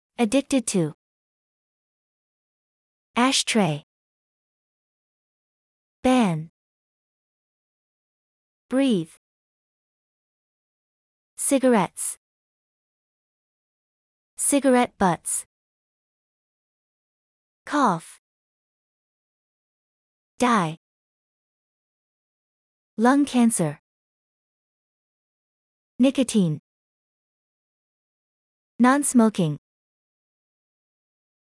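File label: American; smoking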